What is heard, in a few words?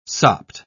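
"sopped", British English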